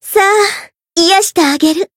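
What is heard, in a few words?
Voice heal, Japanese; harle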